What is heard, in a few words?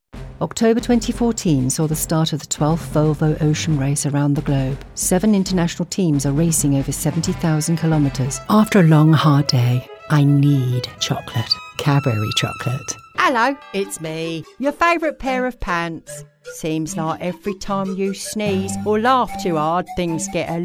commercial, British voice